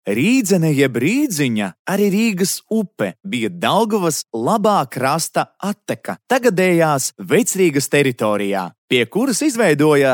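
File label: Latvian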